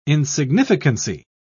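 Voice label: "insignificancy", British English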